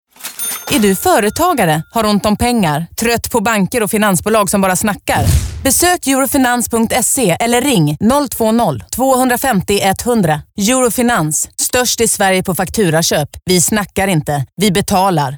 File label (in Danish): Radiospot; Eurofinans